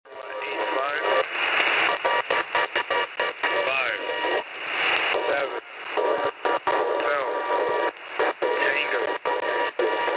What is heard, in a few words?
Letter Numbers, 4724 kHz